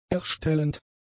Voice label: "erstellend", German; adjectives

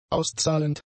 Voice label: "auszahlend", German; adjectives

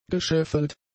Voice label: "gescheffelt", German; adjectives

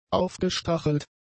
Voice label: "aufgestachelt", German; adjectives

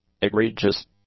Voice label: "egregious", English; adjectives

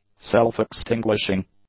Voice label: "self-extinguishing", English; adjectives

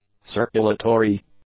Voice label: "circulatory", English; adjectives

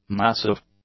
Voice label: "massive", English; adjectives

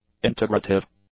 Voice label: "integrative", English

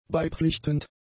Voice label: "beipflichtend", German; adjectives

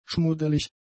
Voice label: "schmuddelig", German; adjectives

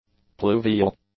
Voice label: "pluvial", english; adjectives

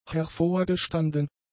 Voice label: "hervorgestanden", German; adjectives

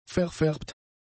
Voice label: "verfärbt", German; adjectives

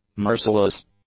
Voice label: "merciless", english; adjectives

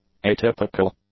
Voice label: "atypical", English; adjectives

